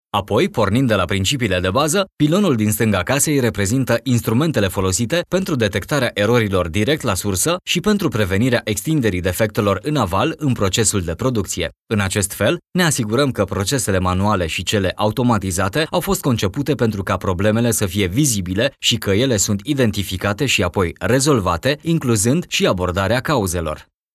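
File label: Romanian narration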